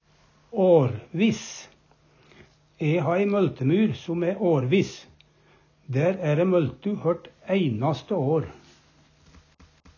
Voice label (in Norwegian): "arviss", Numedalsmål; Uvdal